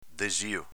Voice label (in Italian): "desio", Veneto; desėo